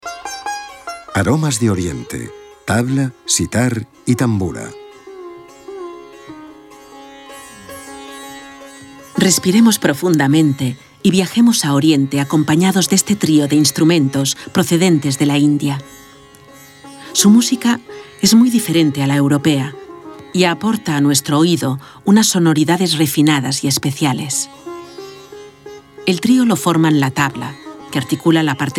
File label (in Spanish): audioguia; delamusica espanyol